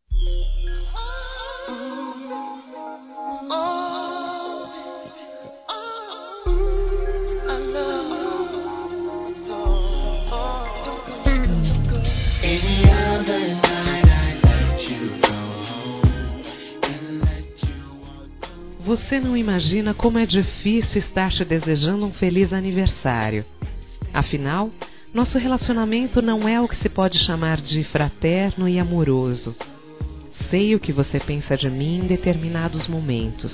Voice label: NEUTRO P EX F; Aniversários; Aniversários diversos; fem

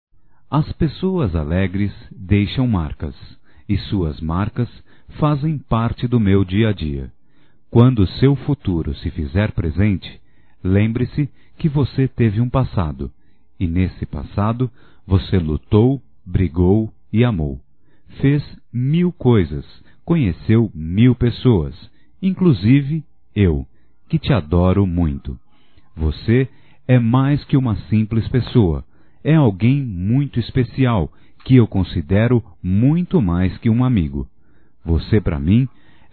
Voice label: Amizade Masculino, Só Voz; Telemensagens; Telemensagens 2017

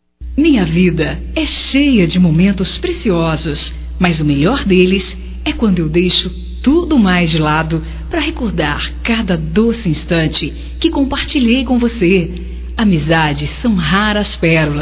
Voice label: Amizade Geral Feminino, Telemensagens

